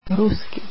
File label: Russian, croatian